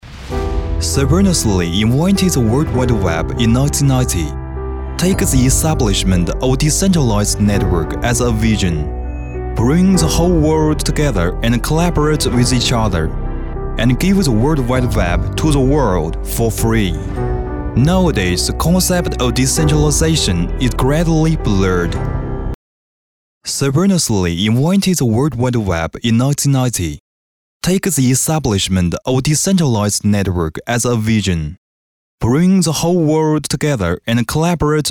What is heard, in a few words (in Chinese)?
男英 专题 企业 科技; 英语; Bitcrowd